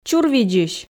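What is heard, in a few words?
"чурвидзысь", Komi, female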